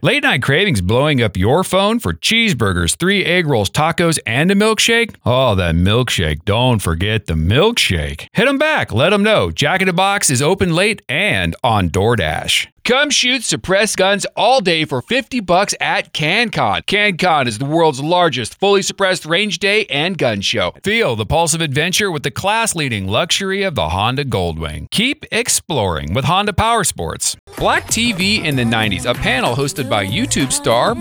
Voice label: Commercial